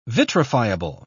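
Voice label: "vitrifiable", British English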